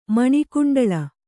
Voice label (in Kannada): "ಮಣಿಕುಂಡಳ", Kannada